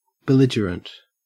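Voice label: "belligerent", Australian English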